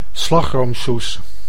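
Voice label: "slagroomsoes", Dutch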